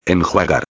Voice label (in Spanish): "enjuagar", espanhol; palavra do dia